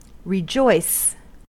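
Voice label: "rejoice", American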